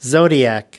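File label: "zodiac", US English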